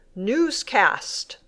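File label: "newscast", English; noticiero; sustantivo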